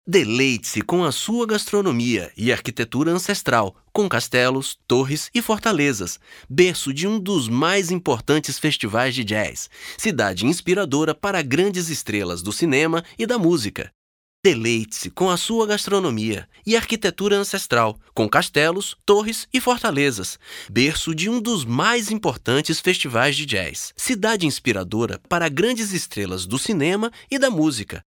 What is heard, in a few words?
locutor brasil brazilian voiceover